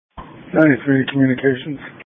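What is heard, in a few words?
Police Patrol (Dispatch)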